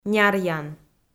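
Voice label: "няръян", Komi, female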